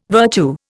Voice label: "virtue", Indian